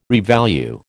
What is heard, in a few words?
"revalue", American